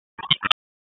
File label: Raleigh Police Northeast District Tac, p25; wake